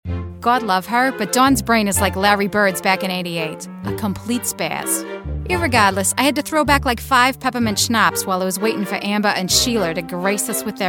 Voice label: Accents and Dialects